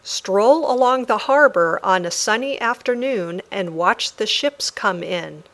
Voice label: Dictation